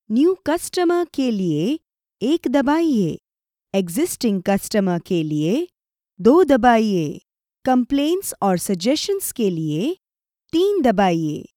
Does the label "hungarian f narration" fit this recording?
No